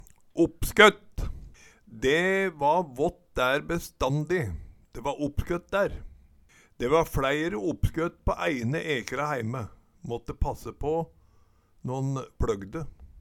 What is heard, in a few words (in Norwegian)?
"oppskøtt", Numedalsmål